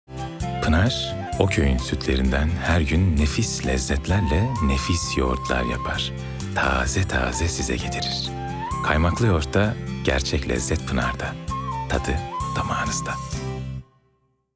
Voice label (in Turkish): sicak samimi